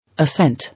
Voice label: "Assent", English

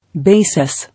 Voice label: "Basis", English

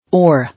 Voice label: "Oar", English

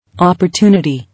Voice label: "Opportunity", English

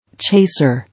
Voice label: "Chaser", English

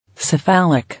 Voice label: "Cephalic", English

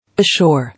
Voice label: "Ashore", English

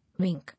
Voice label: "Wink", English

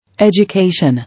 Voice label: "Education", English

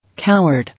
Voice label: "Coward", English

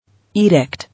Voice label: "Edict", English

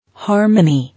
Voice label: "Harmony", English

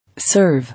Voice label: "Serve", English

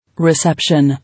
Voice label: "Reception", English

